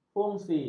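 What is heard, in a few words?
"fungsi", Malay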